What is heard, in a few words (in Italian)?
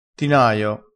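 "tinaio", vocabolario dialettale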